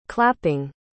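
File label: "clapping", English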